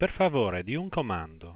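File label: Italian please say a command, Voice Sounds; Samsung SGH-i310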